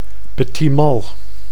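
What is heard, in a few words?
"petit mal", Dutch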